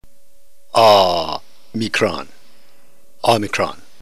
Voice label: "omikron", ntgreek alphabet

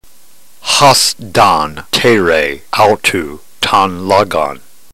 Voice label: drill, ntgreek

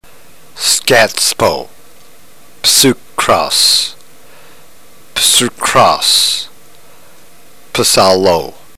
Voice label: drill, ntgreek

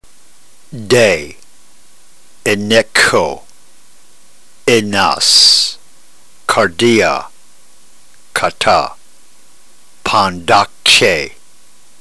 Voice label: drill, ntgreek

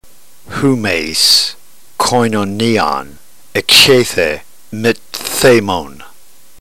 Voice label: drill, ntgreek

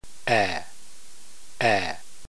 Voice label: alpha short, ntgreek phoneme

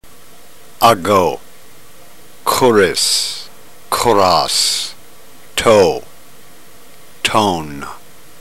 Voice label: drill, ntgreek

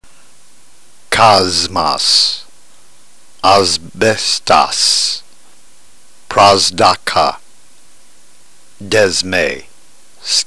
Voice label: drill, ntgreek

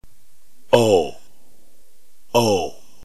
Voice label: omega, ntgreek alphabet phoneme

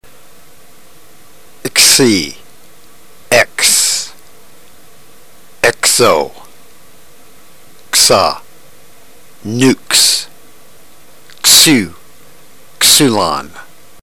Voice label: drill, ntgreek

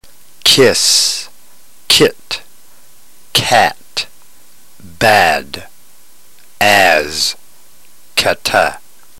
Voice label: drill, ntgreek

